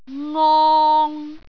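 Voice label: "ngong3", Cantonese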